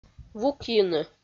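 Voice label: "вукйыны", Komi, female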